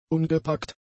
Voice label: "ungepackt", German; adjectives